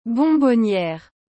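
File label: "bonbonnière", French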